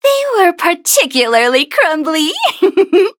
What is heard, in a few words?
victory, en